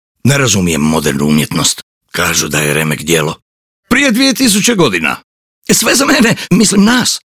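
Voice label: croatian male character, voice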